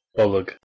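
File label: "bholgh", Proto-Indo-European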